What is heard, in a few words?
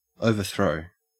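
"overthrow", Australian English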